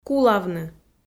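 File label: "кулавны", Komi, female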